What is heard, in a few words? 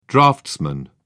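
"draughtsman", British English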